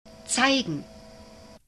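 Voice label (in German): "zeigen", German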